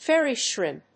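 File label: "Fairy shrimp", English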